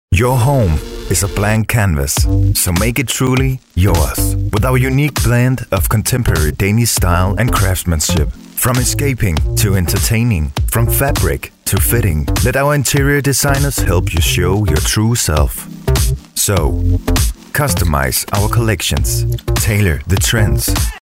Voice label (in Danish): Reklame UK